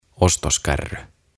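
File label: "Ostoskärry" Finnish; Trolley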